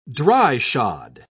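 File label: "dry-shod", British English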